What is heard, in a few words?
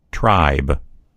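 "tribe", American English; gcompris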